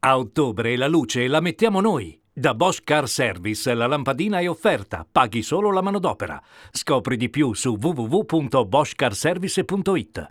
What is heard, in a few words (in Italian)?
spot radio; boshcarservice